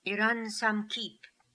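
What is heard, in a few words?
"Iransamkeep", pronunciations; Fourth Way; Beelzebub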